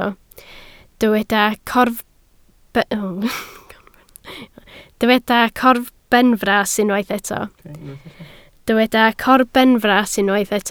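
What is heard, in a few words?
"corbenfras" Welsh, spoken word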